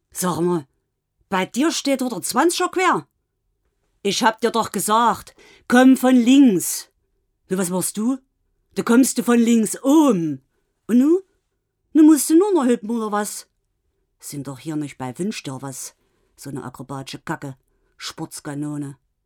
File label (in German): Dialekt sächsisch